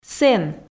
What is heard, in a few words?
"сэн", Komi